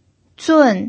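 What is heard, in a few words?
"zun4", Mandarin Chinese pinyin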